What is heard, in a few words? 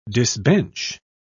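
"disbench", British English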